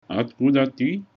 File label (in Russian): where from, ru; Oткуда ты?